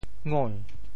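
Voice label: "ngoi~2", Teochew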